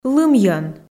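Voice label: "лымйӧн", Komi, female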